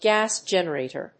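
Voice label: "Gas generator", English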